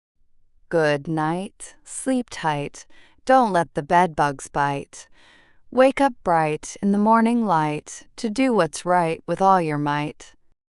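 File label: female US; Good Night Sleep Tight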